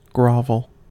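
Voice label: "grovel" US English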